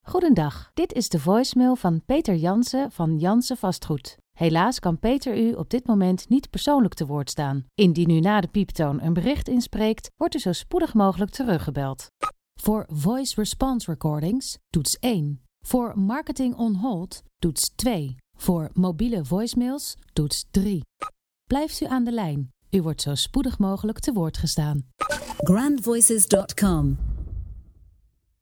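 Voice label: Dutch female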